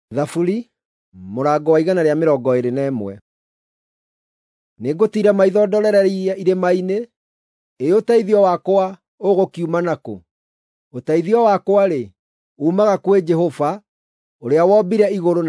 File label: psalms, gikuyu drama